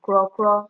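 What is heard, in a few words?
"craw craw", Nigerian Pidgin, female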